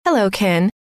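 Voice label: hello, female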